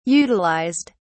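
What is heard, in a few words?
"utilized", British English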